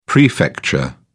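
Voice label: "prefecture", English, British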